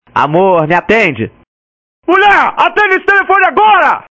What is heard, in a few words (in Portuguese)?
marido autoritario